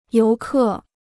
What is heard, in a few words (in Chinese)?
"游客", Chinese